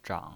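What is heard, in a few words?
"zhang" Mandarin Chinese pinyin voice, third tone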